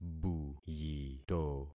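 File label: "buyito", concatenated syllables; Affixing experiment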